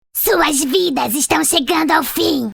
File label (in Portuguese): voice portugues brasileir; Kennen